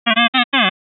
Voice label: Final Focus Question glides; gliding tones